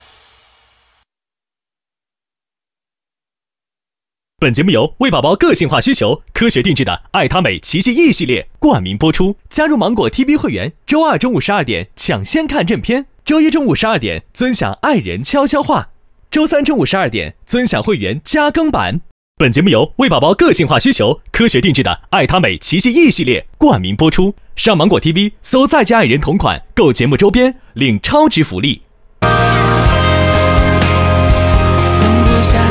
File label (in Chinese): 男 宣传片 综艺 口播; 芒果TV综艺再见爱人3口播